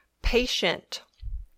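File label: "patient", English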